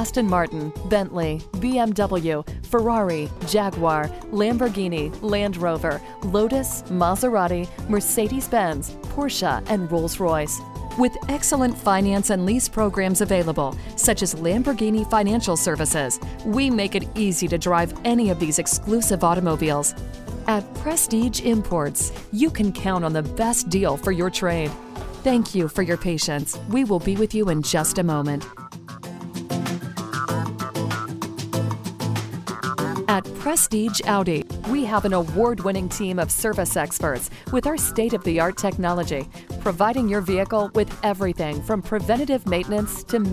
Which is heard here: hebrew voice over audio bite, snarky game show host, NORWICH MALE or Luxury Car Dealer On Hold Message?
Luxury Car Dealer On Hold Message